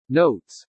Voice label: "notes", english